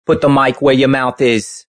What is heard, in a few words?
Put Mic Mouth, Male; Radio